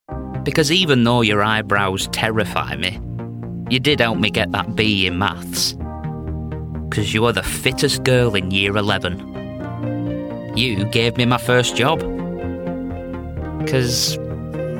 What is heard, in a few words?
Natural Chatty commercial